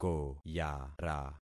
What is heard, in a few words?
"koyara", concat syllables; Affixing experiment